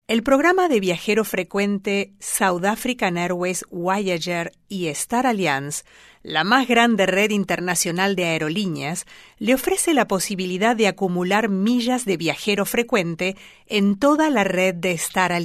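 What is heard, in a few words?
ESLA Commercial, Latin American Spanish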